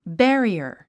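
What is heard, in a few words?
"barrier", English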